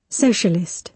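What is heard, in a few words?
"socialist", British English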